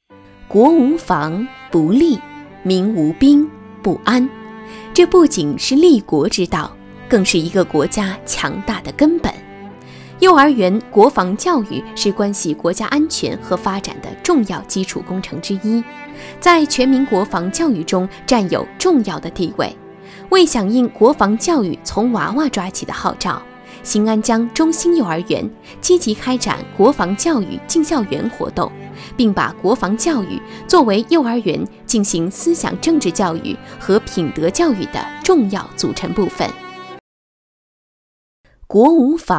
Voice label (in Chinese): 女 专题片; 国无防不立